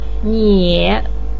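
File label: "nie", Mandarin Chinese third tone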